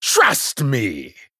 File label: Male Troll Attack